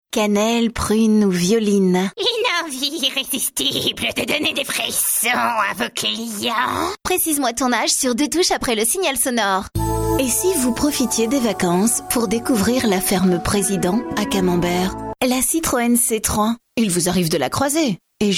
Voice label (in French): Français, voix-off